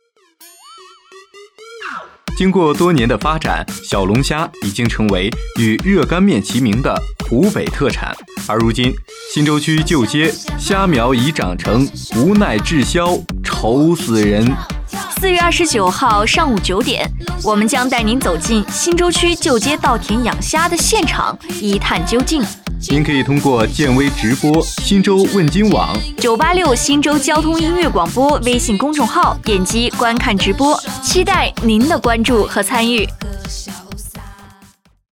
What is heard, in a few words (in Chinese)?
助农直播预告